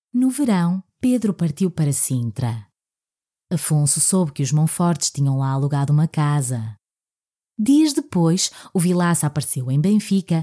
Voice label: portuguese female audiobook, European Portuguese Female Voice